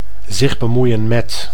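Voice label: "zich bemoeien met", Dutch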